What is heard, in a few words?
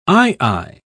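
"aye-aye", British English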